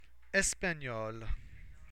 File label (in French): "espagnol espagnole", french